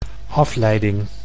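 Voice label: "afleiding", Dutch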